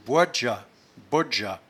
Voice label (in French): "boidgeat", patois jura